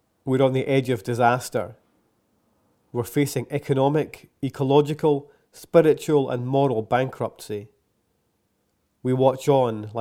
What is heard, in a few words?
narration